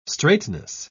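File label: "straitness", British English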